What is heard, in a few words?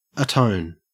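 "atone" English, Australian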